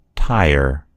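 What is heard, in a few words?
"tire", American English; gcompris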